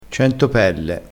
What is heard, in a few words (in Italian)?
"centopelle", crespinese